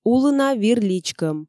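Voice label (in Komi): улына вир личкӧм, Komi, female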